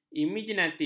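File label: "imaginatif", Malay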